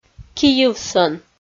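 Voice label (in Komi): "кийывсӧн", Komi, female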